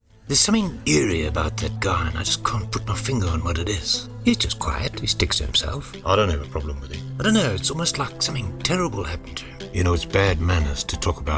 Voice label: South African Voice Overs